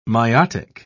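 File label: "myotic", British English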